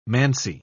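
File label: "-mancy", British English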